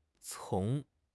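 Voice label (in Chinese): "丛", Chinese; HSK6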